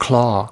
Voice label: "claw", us